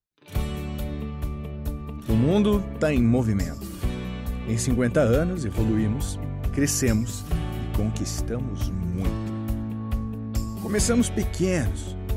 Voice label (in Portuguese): institucional; Barros Auto Pecas 50 anos